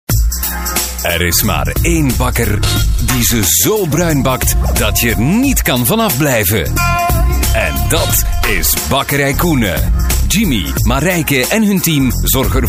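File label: flemish male commercial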